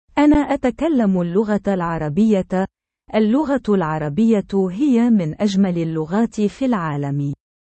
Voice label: arabic; زیباترین زبان های دنیا از نظر آوا، تلفظ و رسم الخط